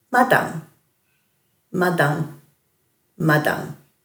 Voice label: "madhang", Dhurga